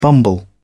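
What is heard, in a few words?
"bumble", British English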